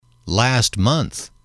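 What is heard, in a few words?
last month, English, male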